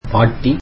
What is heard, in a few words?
tamil grandmother